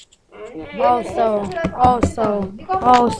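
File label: "also", spoken word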